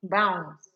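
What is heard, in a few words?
"bounce", Nigerian Pidgin, female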